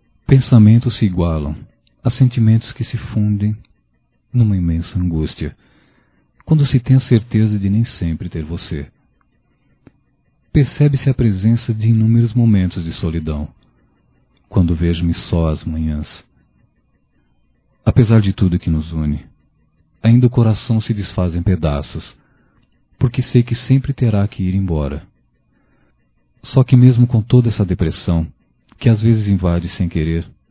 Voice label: Amor Proíbido Masculino, Só Voz; Telemensagens; Telemensagens 2017

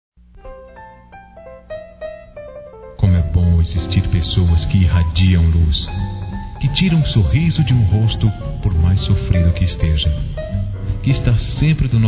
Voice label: Masculino; Amiga Seu Lado; Amizade; Telemensagens

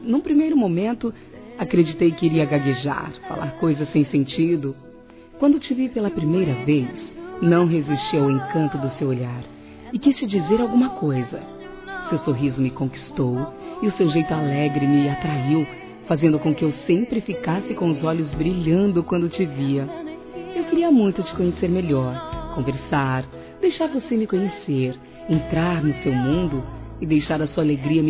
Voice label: Romantica Paquera FEMININO, Telemensagens; Românticas

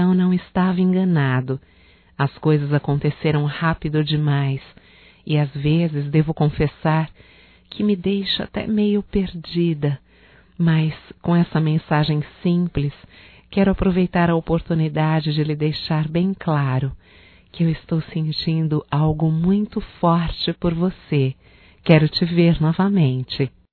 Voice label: AMOR A PRIMEIRA VISTA (F), Telemensagens Românticas fem

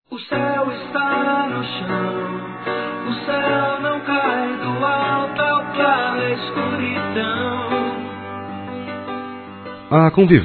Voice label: Variados Româtica para Casais Masculino, Telemensagens

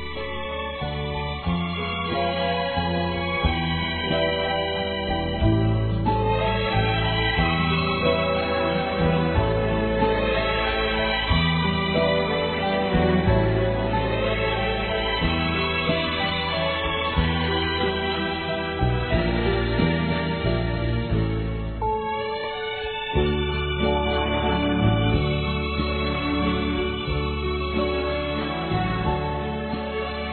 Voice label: RELEMBRA TEMPO DE CRIANÇA, Masc; Dia das Mães; Telemensagens 2017

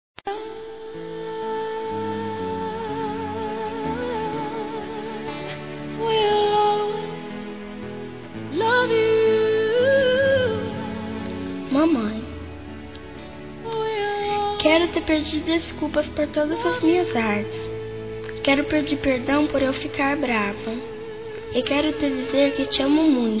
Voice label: Aniversário Mãe Infantil Feminino; Telemensagens

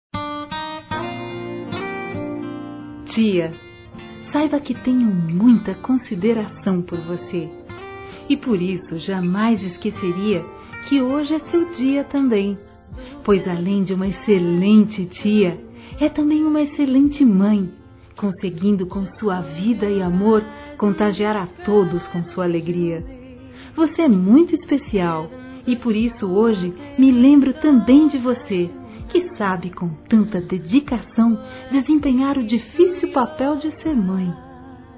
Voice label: PARA TIA F, Telemensagens Dia das Mães Fem; Telemensagens 2017

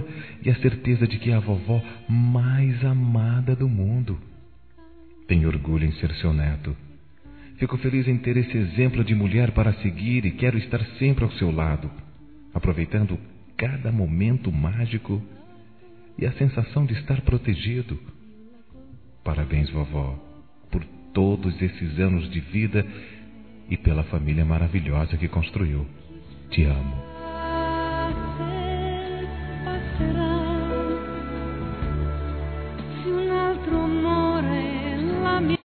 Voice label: Aniversário Avó Masculino

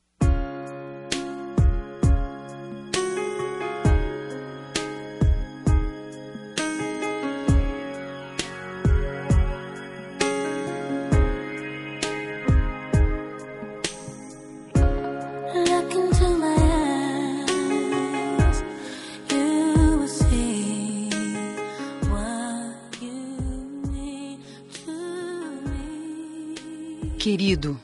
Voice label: Telemensagem Romantica, voz feminina; Amor proibido

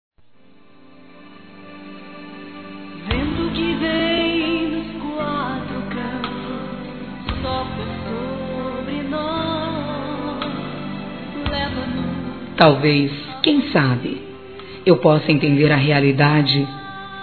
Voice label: RECONCILIAÇÃO, Gospel, fem; Telemensagens; Telemensagens 2017

